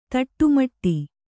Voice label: "ತಿಟ್ಟಮಿಡು", Kannada

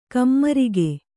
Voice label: "ಕಾಮರಾಗ", kannada

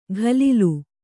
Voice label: "ಘಲಿಲು", Kannada

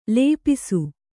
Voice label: "ಲಿಪಿಸು", kannada

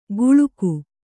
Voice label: "ಗುಳುಕು", Kannada; guḷuku

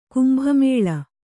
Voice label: "ಕುಂಭಮೇಳ", Kannada

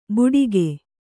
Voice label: "ಬುಡಿಗೆ", Kannada; buḍige; noun; a casket.; a small package; a packet.

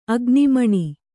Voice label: "ಅಗ್ನಿಮಣಿ", kannada

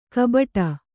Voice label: "ಕಬಟ", Kannada

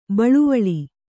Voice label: "ಬಳ್ವಳ", kannada

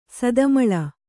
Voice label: "ಸದಮಲ", Kannada

